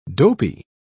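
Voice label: "dopy", British English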